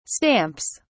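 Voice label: "stamps", British English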